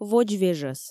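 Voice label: "водзвежӧс", Komi, female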